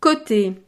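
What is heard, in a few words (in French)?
"cote", French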